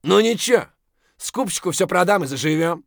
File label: scav mutter, voice; Escape from Tarkov; scav2